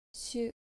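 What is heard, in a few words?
"shu", Japanese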